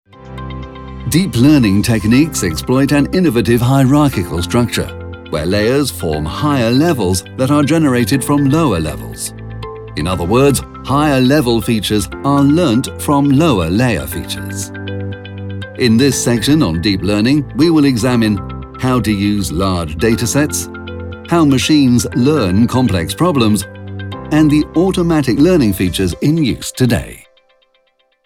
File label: E-LEARNING; DEEP LEARNING